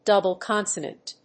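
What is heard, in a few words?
"double consonant", English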